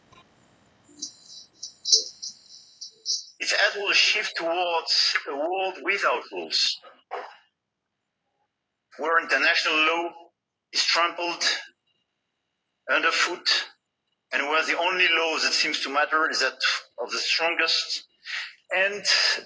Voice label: voice dsp plus aec on level matched